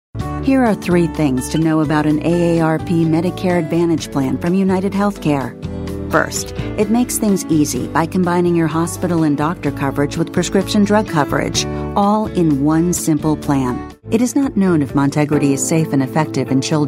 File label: american medical